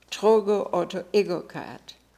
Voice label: "Trogoautoegocrat", pronounciations; Fourth Way; Beelzebub